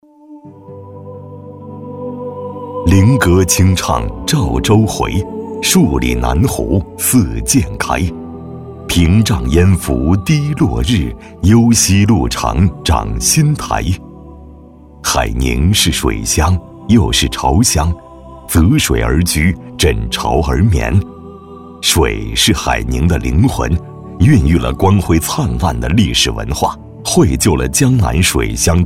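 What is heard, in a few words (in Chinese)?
男【宣传片】; 称钩港水系美丽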